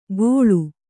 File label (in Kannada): "ಗೋಳು", Kannada